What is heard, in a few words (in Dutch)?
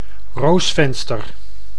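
"roosvenster", Dutch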